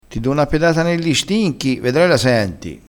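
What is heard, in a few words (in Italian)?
"nelli", dialettale crespinese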